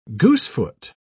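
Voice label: "goosefoot", British English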